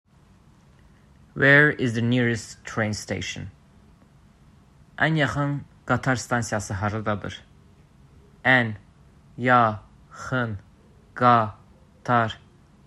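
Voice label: Where is the nearest train station? Ən yaxın qatar stansiyası haradadır, azerbaijani; azerbaijani phrasebook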